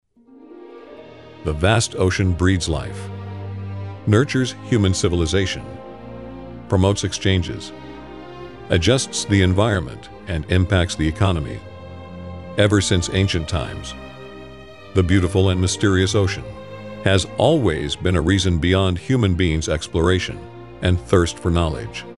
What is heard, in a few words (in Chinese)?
外籍男英 外语 美式英语 宣传片 沉稳